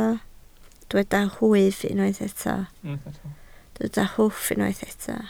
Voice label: "hwff", Welsh